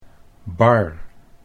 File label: "bar", Dutch